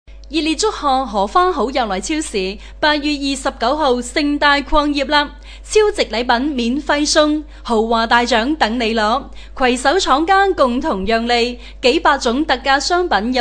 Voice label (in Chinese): 粤语 女; 荷花好又来